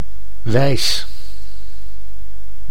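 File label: "wijs", Dutch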